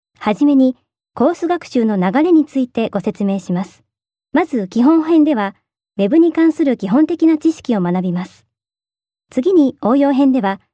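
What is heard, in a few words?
Japanese, female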